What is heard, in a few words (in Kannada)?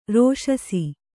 "ರೋಷಸಿ", Kannada